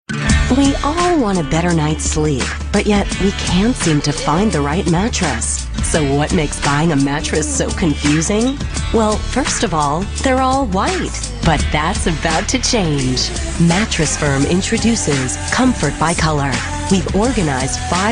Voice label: Friendly